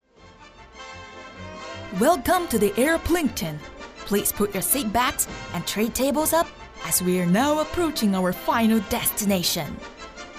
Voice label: 美式女动漫动画角色; 大战痞老板海绵宝宝

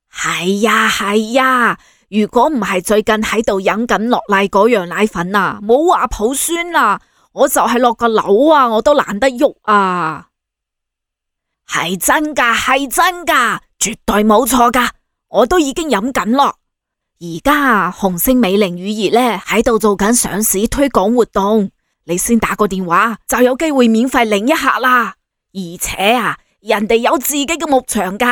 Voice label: 中老年人粤

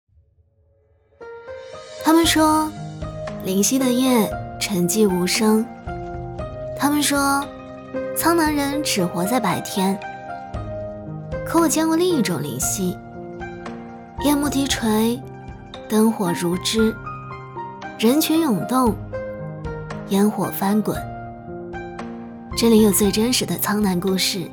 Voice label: 文艺

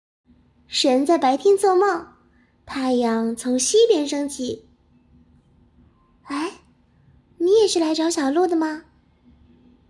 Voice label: 游戏角色音

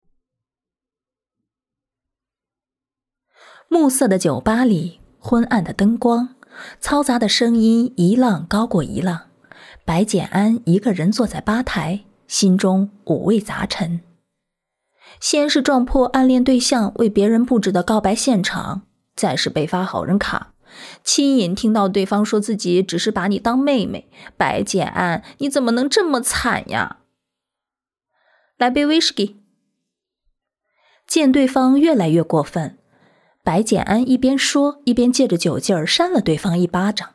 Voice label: 现言旁白

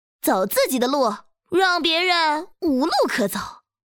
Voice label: 少御洒脱随性

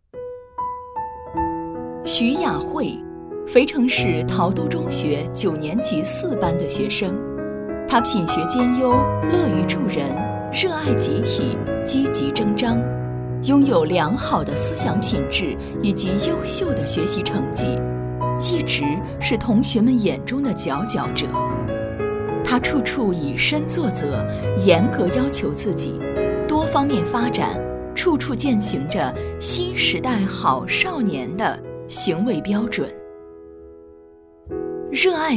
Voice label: 专题亲切讲述; 新时代好少年

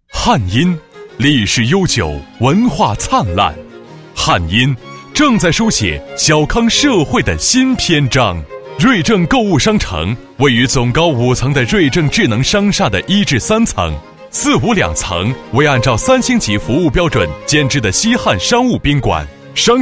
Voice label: 专题 轻松 轻松类 样; 汉阴

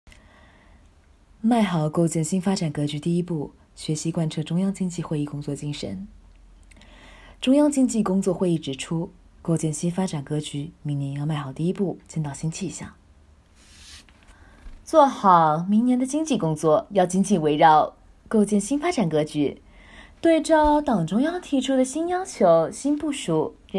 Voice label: 温柔成熟音色

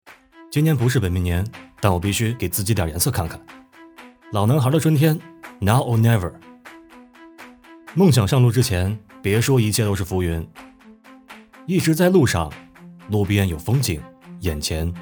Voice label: 广告创新年轻奋斗缩混

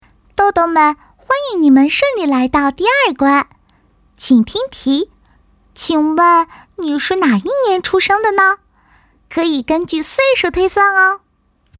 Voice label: 女童; 信使白鸽

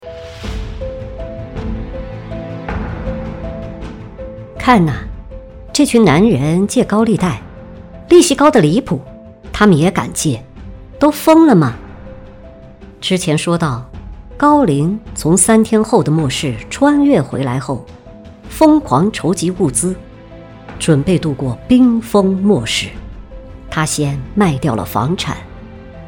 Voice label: 短视频 解说

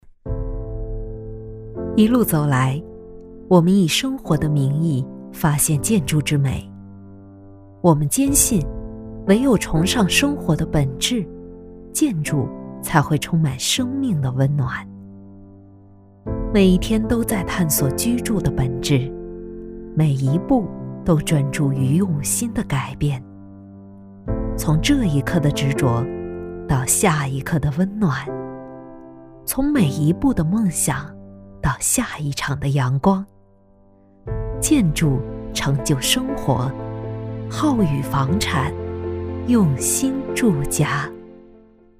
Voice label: 房地产广告高端大气; 浩宇房产

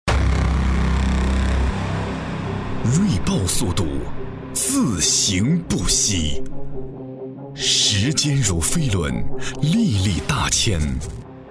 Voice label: 大气力度; 奥运指定瑞豹自行车大气力度

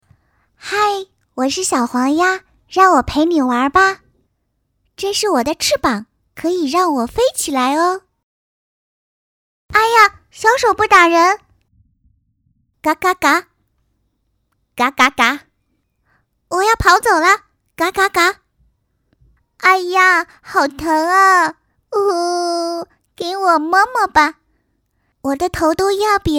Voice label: 儿童玩具提示音女童声

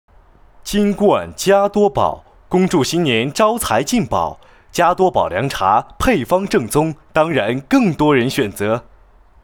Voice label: 配音广告

